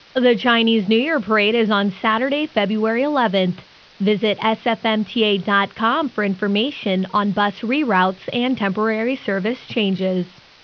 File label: English Alert; bayarea; SFMuni